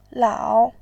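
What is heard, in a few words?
"lao3", Mandarin Chinese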